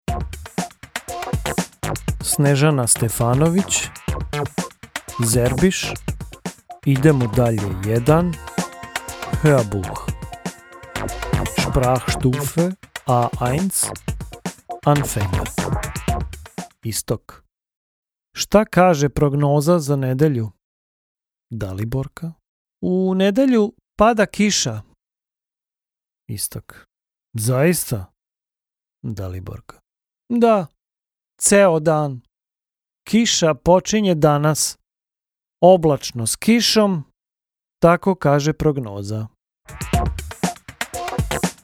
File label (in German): Hoerprobe Serbisch Hoerbuch; Idemo dalje 1